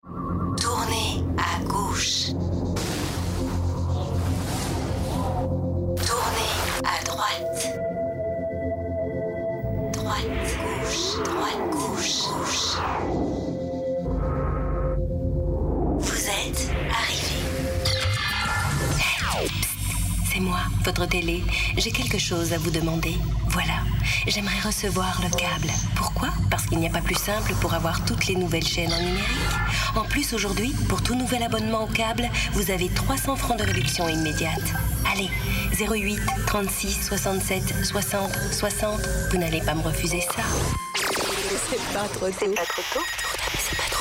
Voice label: MEDLEY VOIX INTELLIGENCE ARTIFICIELLE

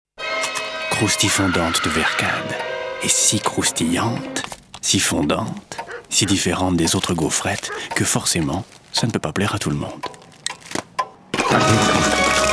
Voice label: Malicieux Intimiste; VERKADE

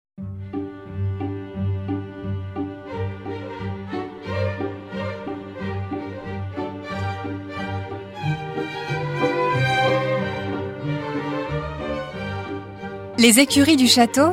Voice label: AUDIOGUIDE; Les ecuries du chateau

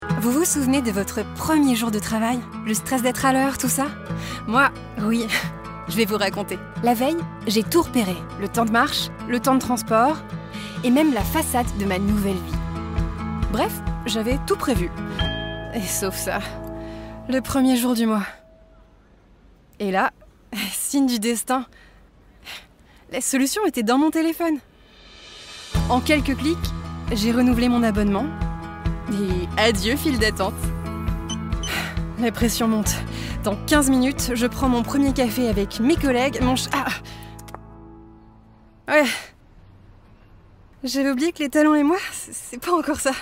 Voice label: pub comedie fr mon histoire naturel sympa; KEOLIS

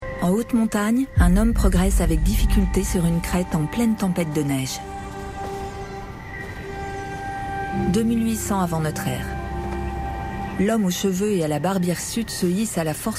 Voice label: AD doc; voix-off; Schnidi le fantome du neolithique

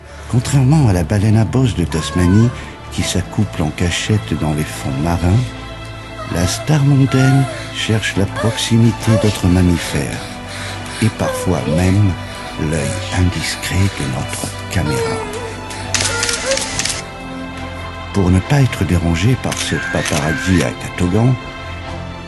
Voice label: DOCU

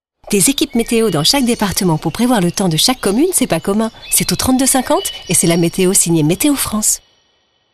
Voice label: Démo Dynamique Joyeux; Meteo France

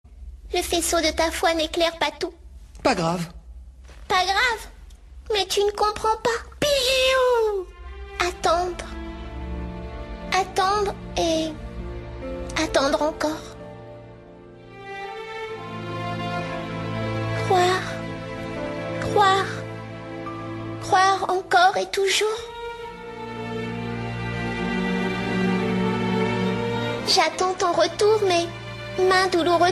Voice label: DOUBLAGE; Gate Keepers; Youkino

